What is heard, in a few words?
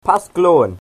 happy easter, Cornish